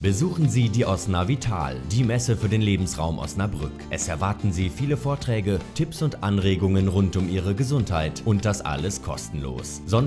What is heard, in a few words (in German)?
Werbespot; Osna Vital 2016